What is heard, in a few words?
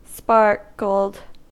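"sparkled", US English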